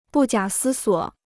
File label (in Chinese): "不假思索", Chinese